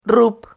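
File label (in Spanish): "rrup", Spanish syllable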